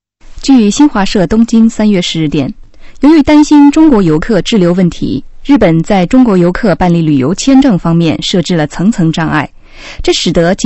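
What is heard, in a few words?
China, female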